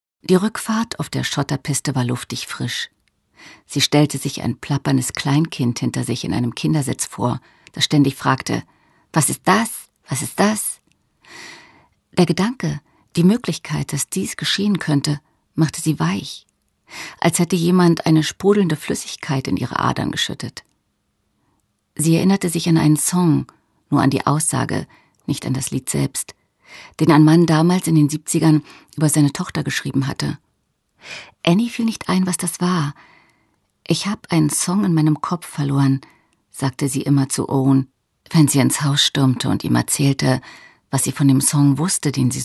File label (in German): Hoerbuch; Komm wieder zurueck